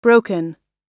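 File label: "broken", American English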